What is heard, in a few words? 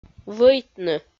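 "вӧйтны", Komi, female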